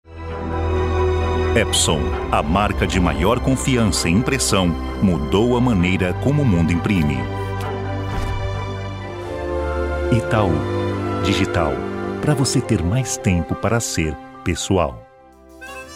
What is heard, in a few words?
portuguese brazilian commercial